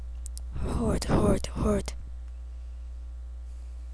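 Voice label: "hold", spoken word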